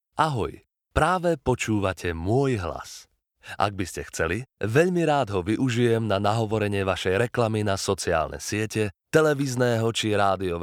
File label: Slovak M